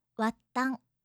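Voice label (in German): "wattan", japanische Mädchennamen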